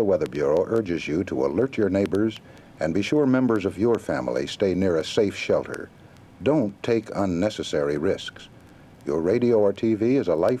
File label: tornado announcement safety; ESSA